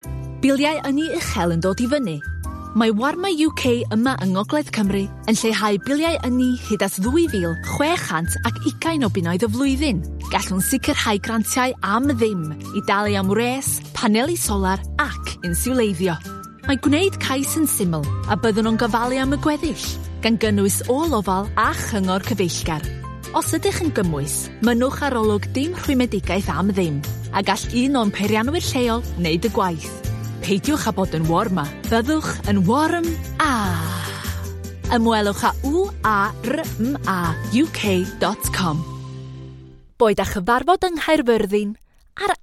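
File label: Welsh female Welsh sample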